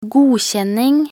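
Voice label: "godkjenning", Norwegian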